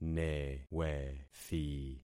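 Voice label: "newethi", concatenated syllables; Affixing experiment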